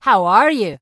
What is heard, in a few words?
Dwarf Female Guard NPC Greeting